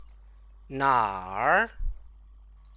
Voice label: "naer", Chinese

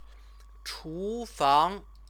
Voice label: "chufang", Chinese

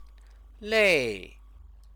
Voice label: "lèi", Chinese; tired stative verb

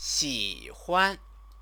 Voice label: "xihuan", Chinese

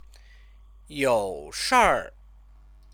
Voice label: "youshier", Chinese

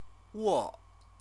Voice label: "wo", Chinese

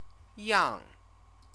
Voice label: "yang", Chinese

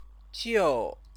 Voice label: "jiù", Chinese; then, [pleading tone] adverb

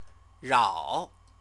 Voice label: "rao", Chinese

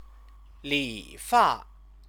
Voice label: "lĭ fà", Chinese; 理 发; CTCFL

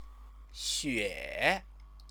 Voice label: "xue", Chinese; 雪; snow; noun

